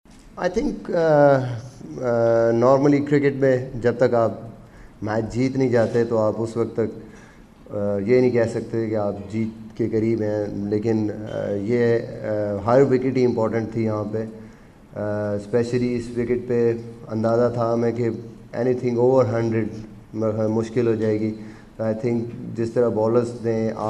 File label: post match media conference urdu; pakistan captain misbah ul haq; second test v sri lanka